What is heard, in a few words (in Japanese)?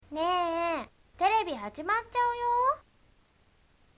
shoujo